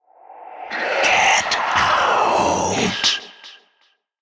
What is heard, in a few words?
Whispers; Warframe; Golem Quest; Golem Trial Monster; Jordas